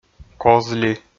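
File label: "коз ли", Komi-Zyrian, male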